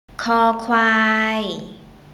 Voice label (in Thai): "ค ควาย", Thai